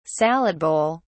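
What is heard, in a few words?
"salad bowl", British English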